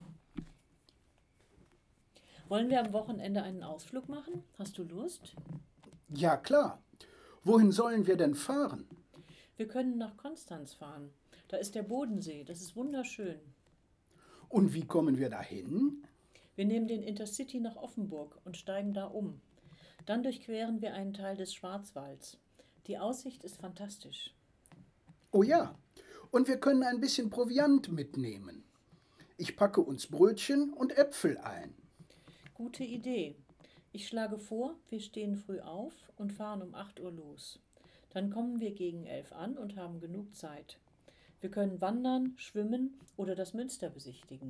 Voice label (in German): Dialog Reiseplan